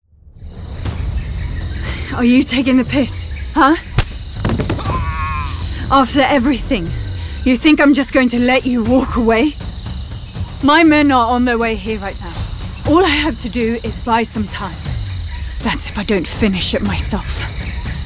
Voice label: Character